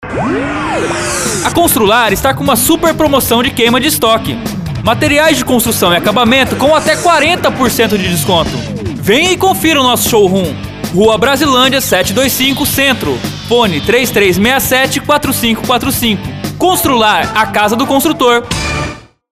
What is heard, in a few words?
Spot; Constrular